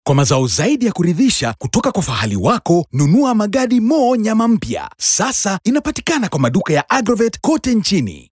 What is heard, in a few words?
Narration